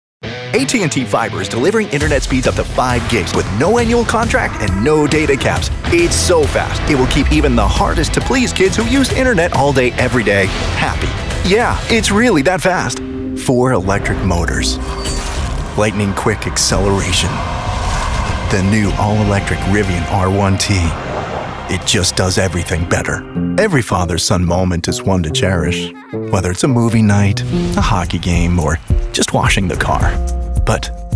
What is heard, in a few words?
Commercial Voiceovers